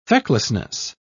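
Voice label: "fecklessness", British English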